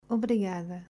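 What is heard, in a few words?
"Obrigada", Portuguese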